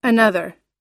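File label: "another", American English; another /ənʌ́ðɚ; 別の, 別個, 別箇, 分かれた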